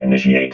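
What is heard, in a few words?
"initiate", robot voice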